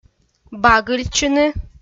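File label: "багыльтчыны", Komi, female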